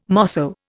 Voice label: "mosso", Indian

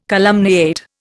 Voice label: "calumniate", Indian

